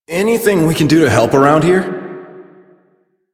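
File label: Citizen Male, English; Warframe; Mall; Citizens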